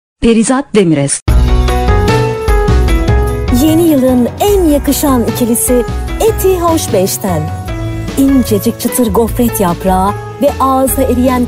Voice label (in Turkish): turkce kadin